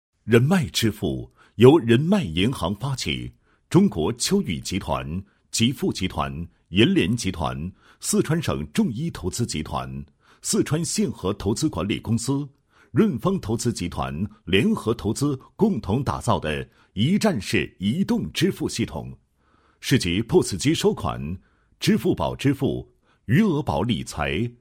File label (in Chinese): 男-产品简介-支付平台简介, 配音案例; 人脉